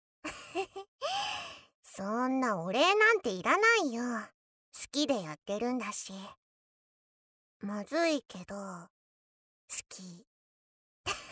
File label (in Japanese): 台詞のみ, ASMR; パンツごと愛撫で甘イキ; 地雷系女子同棲カウントダウン 即尺→イラマチオ 顔面クンニ 寸止めバックからの騎乗位アナル 食後の放尿観察